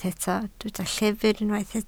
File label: "llyfr", Welsh